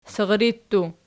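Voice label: "sirri" plural, Archi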